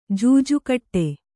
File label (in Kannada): "ಜೂಜುಕಟ್ಟು", Kannada